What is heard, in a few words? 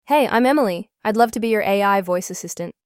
Australian Female